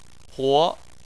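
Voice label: "huo", Chinese, second tone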